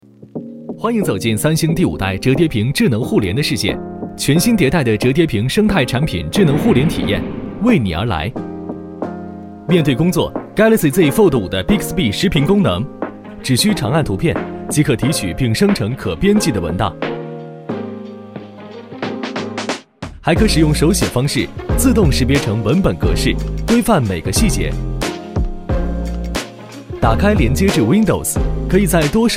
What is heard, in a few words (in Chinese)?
男, 宣传片, 折叠屏智能互联; 三星galaxy第五代